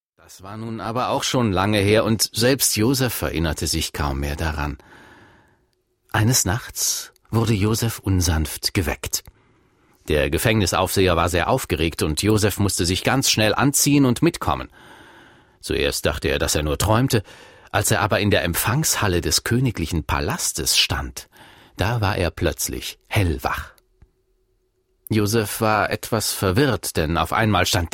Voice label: Erzaehler

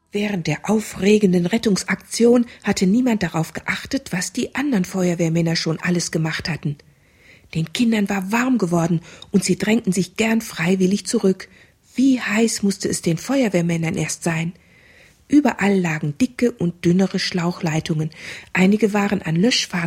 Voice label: Hoerszene; Wasser marsch

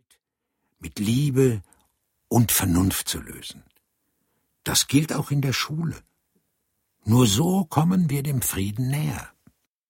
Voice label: Erzaehler

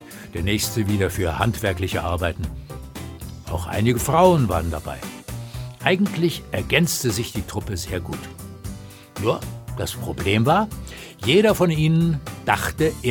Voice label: Erzaehler

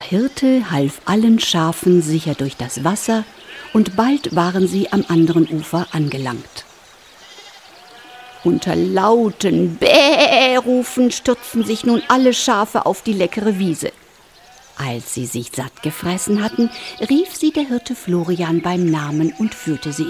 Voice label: Hoerspielszene

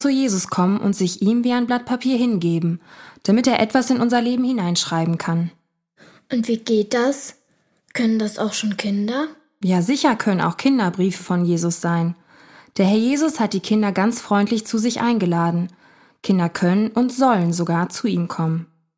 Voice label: Hoerspiel; ein brief von jesus

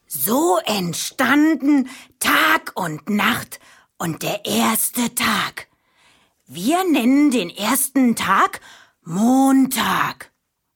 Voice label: Erzaehler

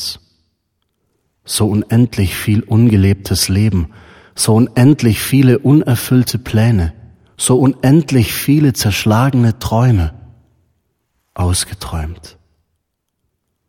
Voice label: Leere Seiten Gedicht

